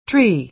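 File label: "tree", American English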